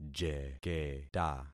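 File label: "jeketa", concatenated syllables; Affixing experiment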